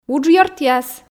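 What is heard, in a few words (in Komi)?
"уджъёртъяс", Komi, female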